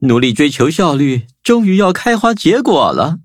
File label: level up, zh-hant